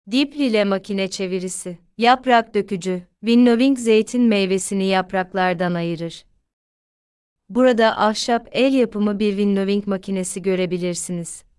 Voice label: turkish